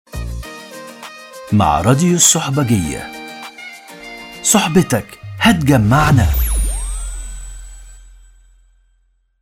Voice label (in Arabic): برومو راديو; الصحبجيه